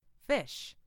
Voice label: "fish", en; FoodChain